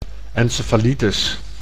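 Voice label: "encefalitis", Dutch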